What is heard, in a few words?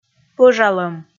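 "пӧжалӧм", Komi, female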